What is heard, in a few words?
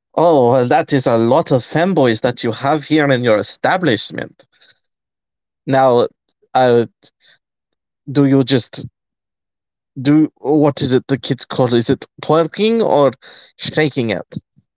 voice message